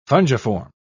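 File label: "fungiform", British English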